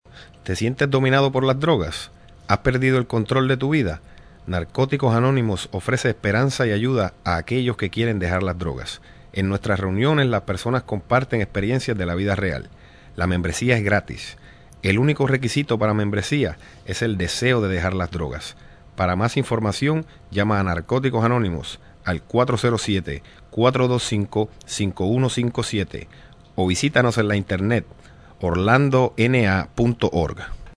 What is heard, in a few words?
Male Spanish; 30 Seconds